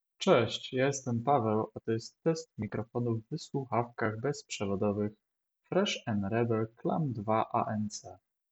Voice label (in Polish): test mikrofonow anc bez otoczenia; Fresh 'n Rebel Clam 2 ANC